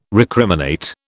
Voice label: "recriminate", British